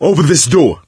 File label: misc directional, coach, survivor voice